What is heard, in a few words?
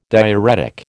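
"diuretic", American English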